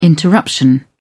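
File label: "interruption", British English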